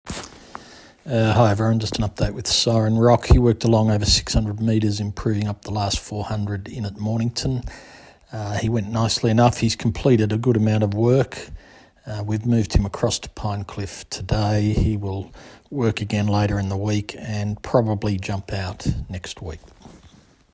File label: voice note, update from trainer; Siren Rock